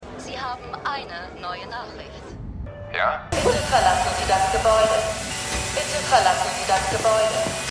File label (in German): Mailbox Bewohner Durchsage; Slow Horses; Ein Fall fuer Jackson Lamb